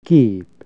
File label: picture, hungarian Consonants